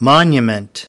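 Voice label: "monument", English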